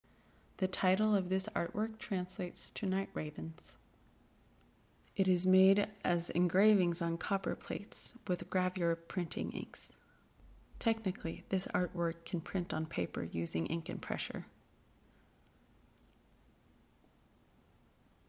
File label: English; Nuuk Art Museum; audio-guide